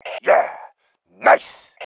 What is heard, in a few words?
NICE, MALE